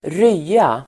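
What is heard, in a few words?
"rya", Swedish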